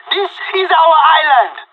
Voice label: radio bot end clean, pirate; Counter Strike Model Pack; CS:GO

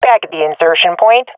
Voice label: at our spawn, swat fem; vo; Counter Strike Model Pack; CS:GO

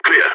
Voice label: clear, balkan

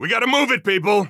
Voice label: radio lets go; Counter Strike Model Pack; CS:GO; phoenix coop

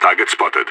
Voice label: radio enemy spotted; idf; vo

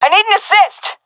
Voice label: request backup, seal fem; vo; Counter Strike Model Pack; CS:GO

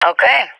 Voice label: affirmation, vo agents; fbihrt epic

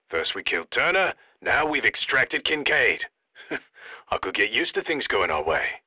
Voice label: wave clear, radio

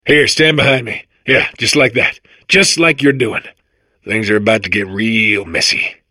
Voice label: babble; Portal 2

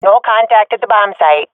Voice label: bombsite clear, swat fem; vo; Counter Strike Model Pack; CS:GO

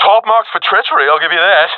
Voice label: sees friend killed, professional epic, agents; vo; Source Model Packs; Counter Strike Model Pack; CS2

